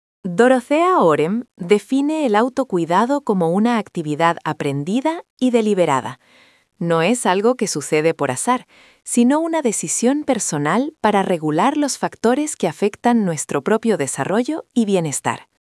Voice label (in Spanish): locucion